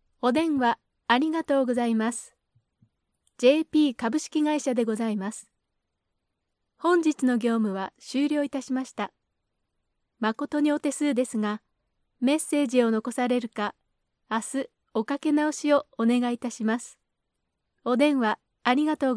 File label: japanese ivr